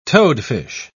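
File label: "toadfish", British English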